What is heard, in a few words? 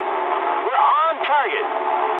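English near waypoint mood high; War Thunder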